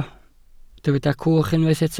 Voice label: "cwch", Welsh

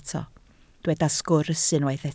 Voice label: "sgwrs", Welsh

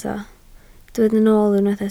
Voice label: "nool", Welsh

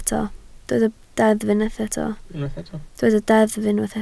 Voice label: "deddf", Welsh

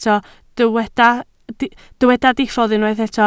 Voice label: "diffodd", Welsh

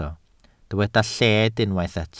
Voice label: "lled", welsh

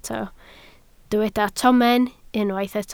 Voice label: "tomen", Welsh

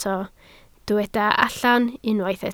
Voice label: "allan", Welsh

